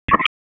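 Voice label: Raleigh Police Special Operations Tactical, p25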